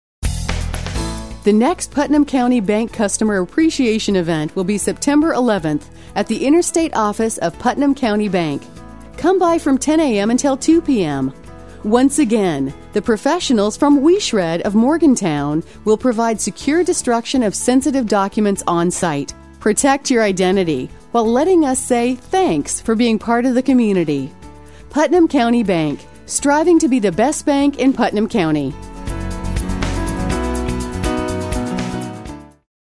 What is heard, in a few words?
On hold message; Putnam County Bank